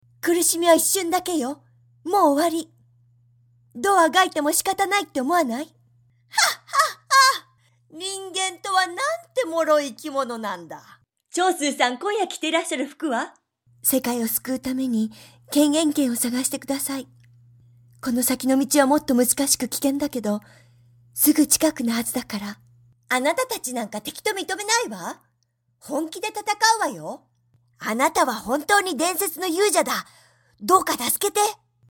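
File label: Japanese, game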